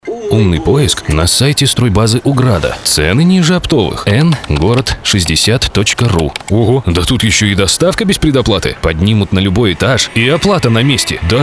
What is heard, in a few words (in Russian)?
Игровой одноголосый ролик; Стройбаза Уграда